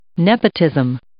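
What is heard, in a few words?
"nepotism", English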